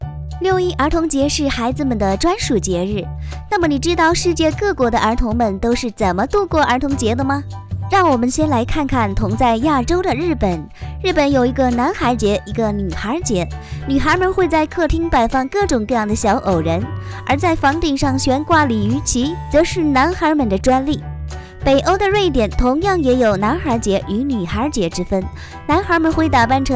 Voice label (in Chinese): 年轻活泼儿童节